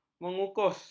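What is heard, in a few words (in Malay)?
"mengukus", Malay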